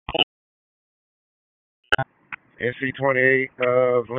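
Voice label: Dispatch, p25; WSO DISP 1; Wake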